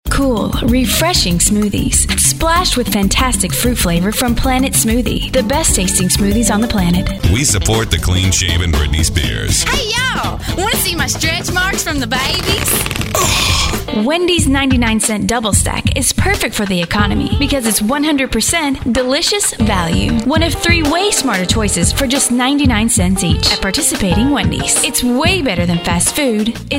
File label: Commercial